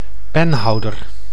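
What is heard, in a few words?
"penhouder", Dutch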